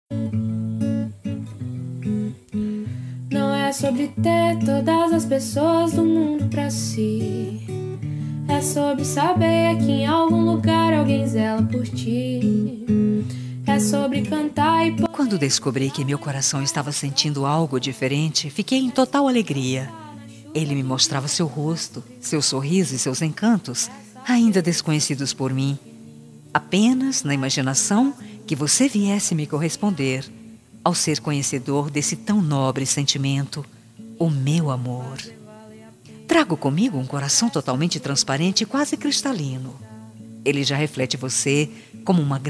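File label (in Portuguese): CONQUISTA female, Telemensagem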